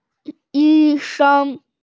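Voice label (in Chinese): "yi1sheng1", Mandarin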